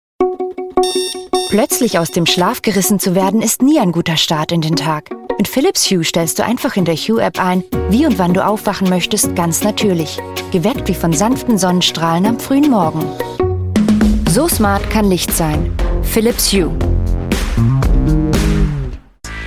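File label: German Commercial; GoLocalise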